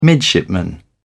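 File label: "midshipman", British English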